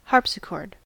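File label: "harpsichord", US English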